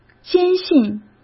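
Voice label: "坚信", Mandarin Chinese; chinese-flashcards; hsk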